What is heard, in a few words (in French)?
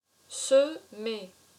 "Semer", french